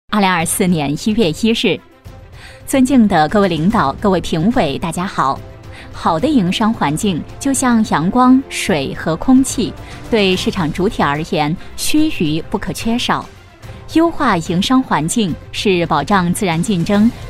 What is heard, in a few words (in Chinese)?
女 专题 稍快语速; 营商环境